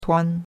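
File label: "tuan1", Mandarin Chinese; Cours-de-Chinois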